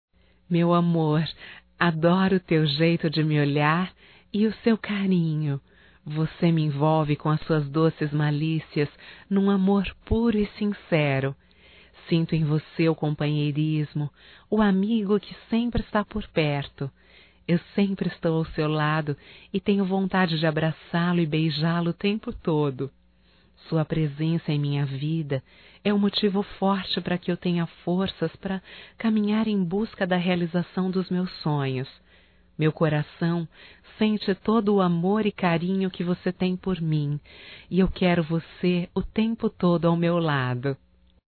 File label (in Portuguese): ROMANTICA(F), Românticas, fem